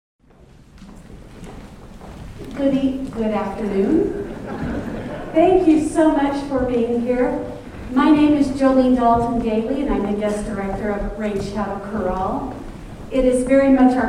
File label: Narration